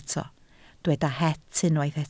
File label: "het", Welsh